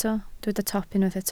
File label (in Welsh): "top", Welsh